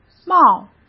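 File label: "冒" Mandarin Chinese; hsk; chinese-flashcards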